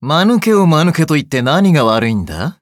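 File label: victory, Japanese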